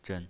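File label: "zhen" Mandarin Chinese pinyin voice, neutral tone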